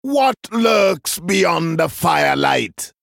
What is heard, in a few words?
male troll start